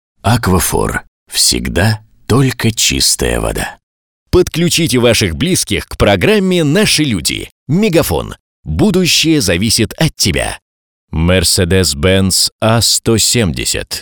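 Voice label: Russian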